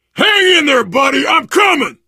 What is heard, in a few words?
to the rescue, survivor voice biker; source engine; left 4 dead 2